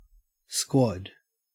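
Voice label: "squad", Australian English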